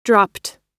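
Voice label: "dropped", American English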